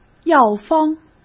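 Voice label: "药方", Mandarin Chinese; hsk; chinese-flashcards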